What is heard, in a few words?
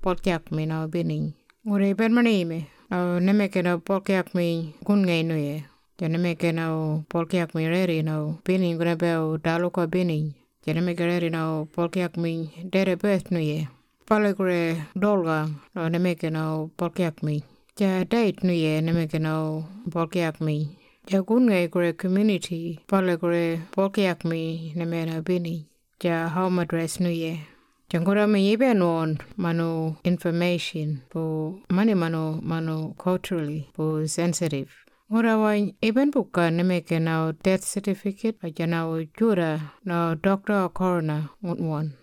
Burial Notification Kunwinjku; The Deceased; burial and cremation; information recorded in 18 aboriginal languages; Burial and Cremation Act 2022